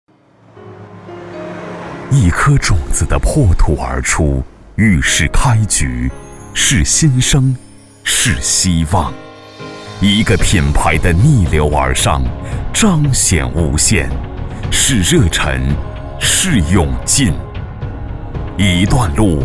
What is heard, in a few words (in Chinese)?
男 唯美 大气